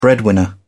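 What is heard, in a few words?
"breadwinner", British English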